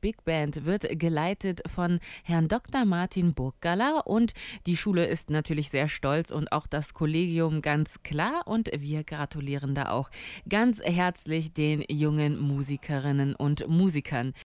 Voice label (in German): Jazz Radio; Goethe-Gymnasium